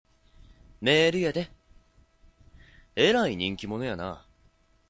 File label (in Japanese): kansai